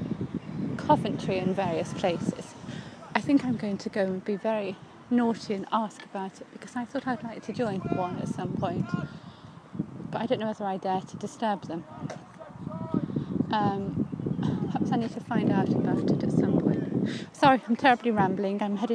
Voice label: military fitness excitement; warwick